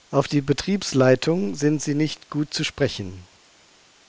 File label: german; GlobalPhone